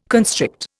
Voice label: "constrict", Indian